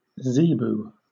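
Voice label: "zebu", British English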